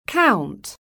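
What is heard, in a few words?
"COUNT", English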